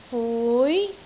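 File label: "fui2", Cantonese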